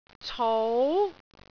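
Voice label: "cou2", Cantonese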